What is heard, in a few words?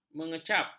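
"mengecap", Malay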